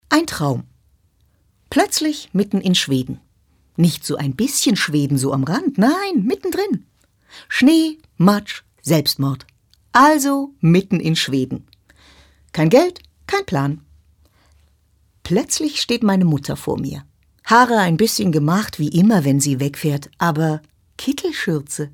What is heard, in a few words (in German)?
Schauspiel Neutral